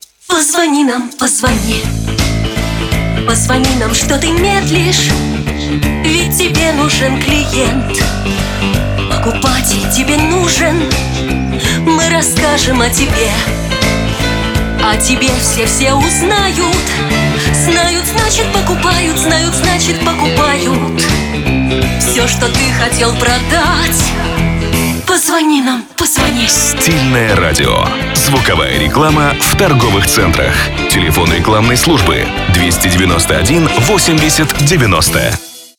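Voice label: Stylish radio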